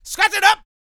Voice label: male spoken; R&B Dance